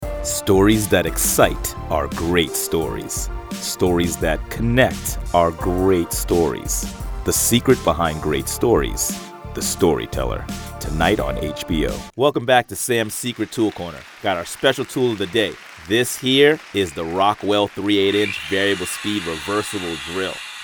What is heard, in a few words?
NARR